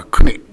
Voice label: "kune", minamiyamagata